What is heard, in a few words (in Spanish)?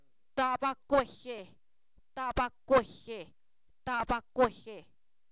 Zapoteco, san miguel albarradas; soplador; objetos inanimados